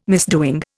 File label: "misdoing", Indian